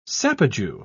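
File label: "sapajou", British English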